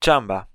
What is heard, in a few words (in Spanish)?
"chamba", espanhol